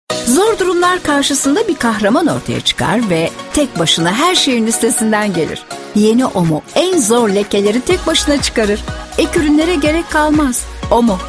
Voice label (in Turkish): reklam